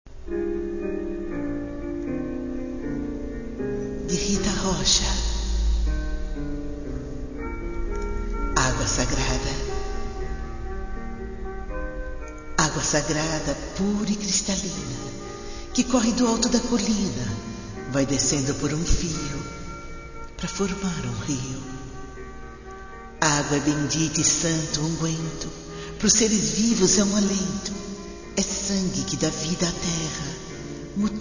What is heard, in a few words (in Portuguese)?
poesia declamada; agua sagrada